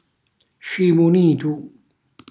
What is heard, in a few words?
"scimunitu", Calabrese; cucina calabrese